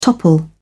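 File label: "topple", British English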